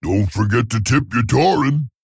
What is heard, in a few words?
Male Tauren